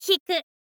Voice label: "hiku", info girl voice